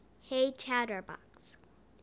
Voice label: hey chatterbox, en us